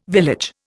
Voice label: "village", Indian